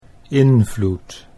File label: "invloed", Dutch